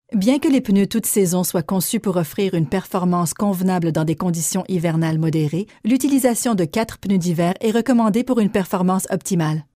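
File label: French Canadian narration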